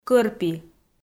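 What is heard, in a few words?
"кӧрпи" Komi, female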